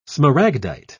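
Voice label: "smaragdite", English, British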